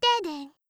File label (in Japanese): "デデン↓", 擬音